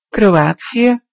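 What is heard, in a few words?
"croatia", Dutch; gcompris-data; geography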